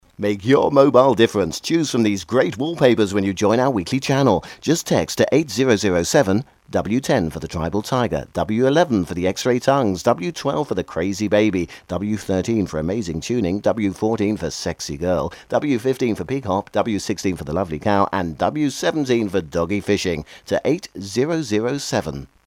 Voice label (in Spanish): ingles